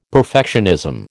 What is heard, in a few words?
"perfectionism", American